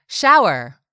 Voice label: "shower", English; 3000 words

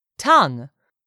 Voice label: "tongue", English; 3000 words

